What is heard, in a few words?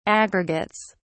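"aggregates", British English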